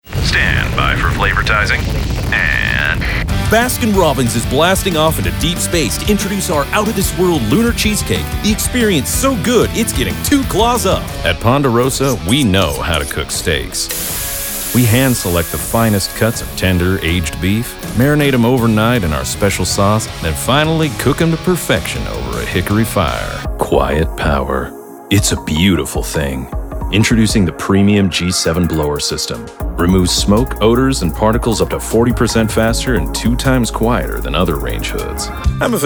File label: Commercial Demo